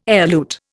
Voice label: "elute", Indian